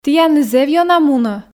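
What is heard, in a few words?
"тіянлы зэв ёна мунӧ", Komi, female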